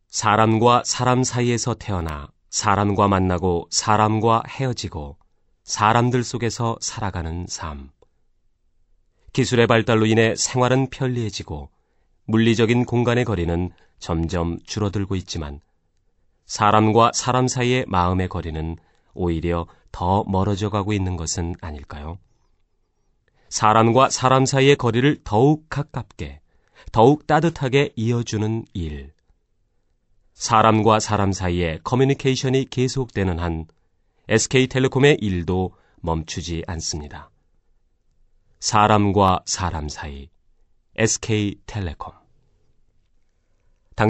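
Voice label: Korean male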